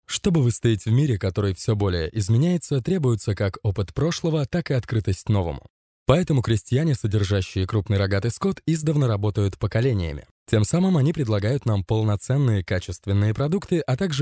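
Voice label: Russian, male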